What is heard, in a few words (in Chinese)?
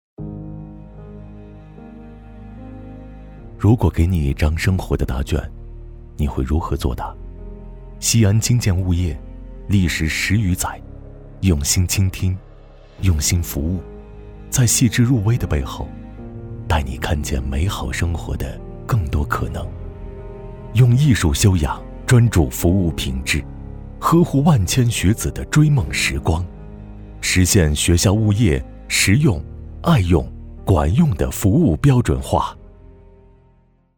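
旁白物业宣传; 经建物业宣传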